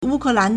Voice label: "wu1ke4lan2", Chinese